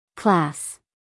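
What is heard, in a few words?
"class", American English, female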